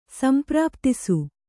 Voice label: "ಸಂಪ್ರಾಪ್ತಿಸು", Kannada